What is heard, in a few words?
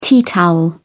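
"tea towel", British English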